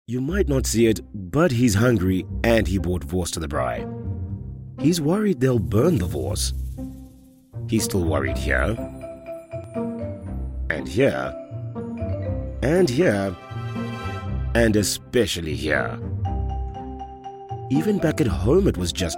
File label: english south african automotive